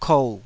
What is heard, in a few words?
"kou3", Taiwanese syllable